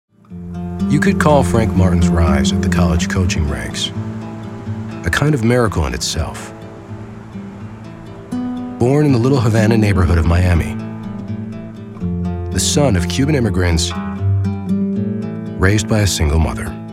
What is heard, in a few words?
narration male